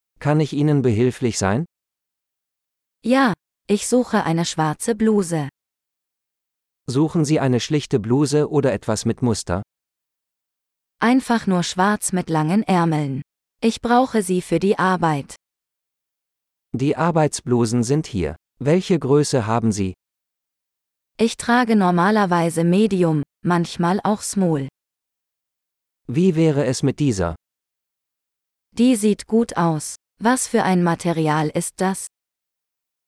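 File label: Shopping for Clothes - Dialogue, German; de-en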